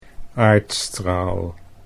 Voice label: "aardstraal", Dutch